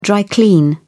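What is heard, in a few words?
"dry clean", British English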